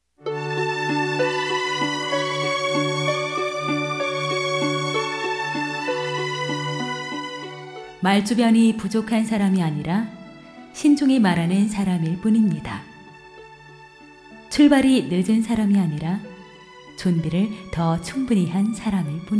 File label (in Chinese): 女 韩 大音 样音